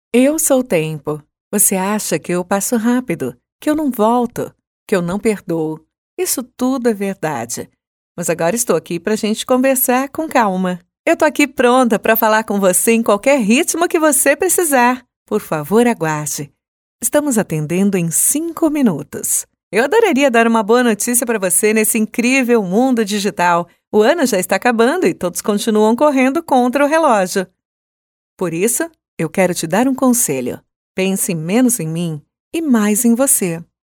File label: 30s40s Female Brazilian Portuguese